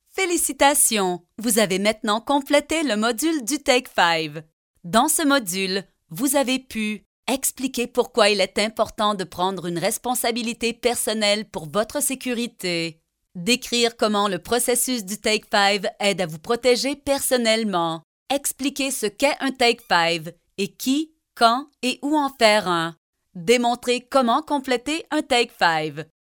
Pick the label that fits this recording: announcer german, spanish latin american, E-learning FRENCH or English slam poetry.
E-learning FRENCH